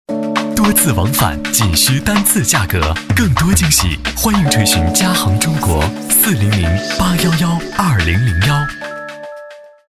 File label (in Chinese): 广告 品牌形象 大气; 加拿大航空